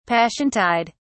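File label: "passiontide", British English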